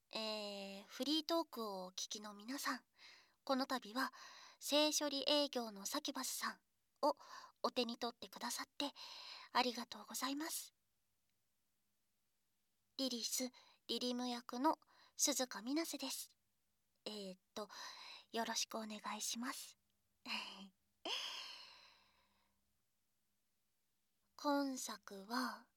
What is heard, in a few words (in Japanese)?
フリートーク（効果音無し）, ASMR